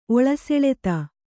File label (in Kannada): "ಒಳಸೆಳೆತ", kannada